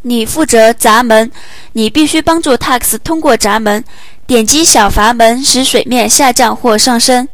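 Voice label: canal lock, Chinese; gcompris-data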